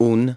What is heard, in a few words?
"one", masculine; iOS 4